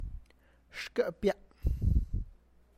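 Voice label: Tlacochahuaya Zapotec; xhkë’pia; my stomach; (Spanish) mi estómago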